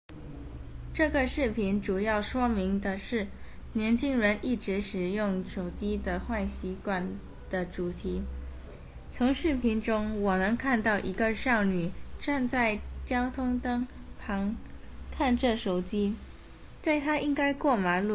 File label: chinese oral practice teenagers using phone